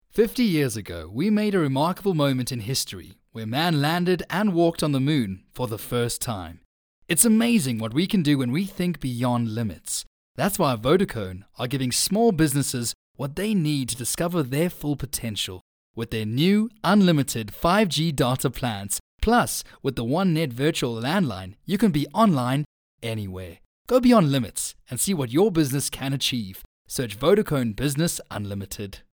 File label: English Corporate; OSM Talent